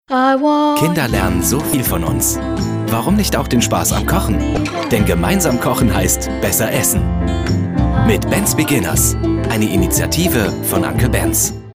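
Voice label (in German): Werbung, Freundlich; uncle Bens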